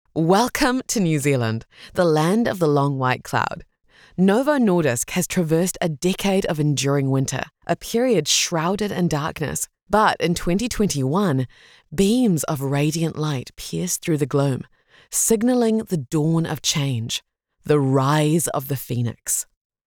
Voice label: english new zealand documentaries; voice actor